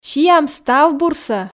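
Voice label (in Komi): "сиам став бурсӧ", Komi, female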